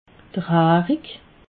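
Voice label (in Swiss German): "harig", Alsatian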